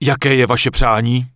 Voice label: Human Male Merchant Start Shopping; wowpreklad; czwow; NPC